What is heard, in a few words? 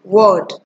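"word", Nigerian Pidgin, female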